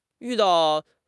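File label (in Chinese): "yu4 dao4", Chinese; HSK; HSK3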